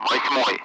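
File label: Speech, Russian, 8th; Allied Flight; DCS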